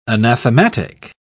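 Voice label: "anathematic", English, British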